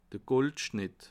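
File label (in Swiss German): "goldschnitt", Bas Rhin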